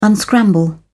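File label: "unscramble", English, British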